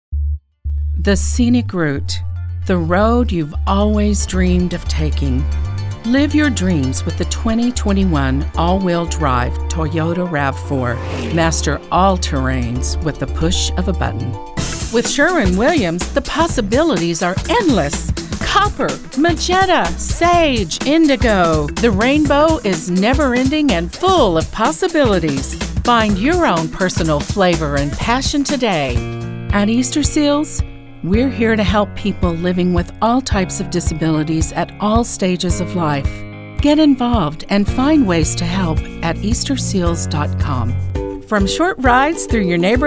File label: Voice Over Commercial